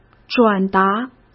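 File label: "转达", Mandarin Chinese; hsk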